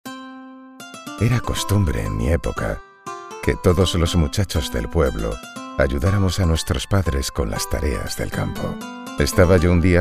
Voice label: locutor spanish voiceover

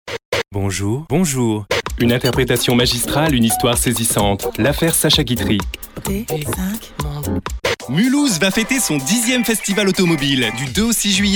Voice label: locutor frances

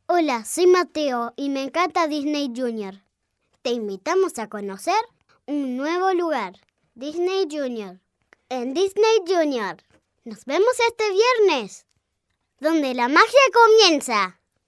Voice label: locutor nino espanol neutro

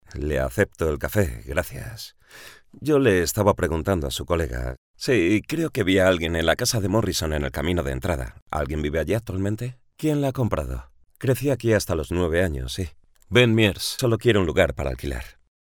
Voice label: locutor spanish voiceover

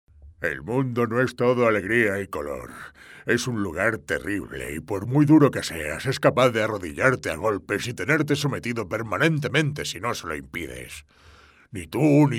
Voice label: imitacion rocky locutores imitadores; imitador de actores de cine. Imitación de Rocky.

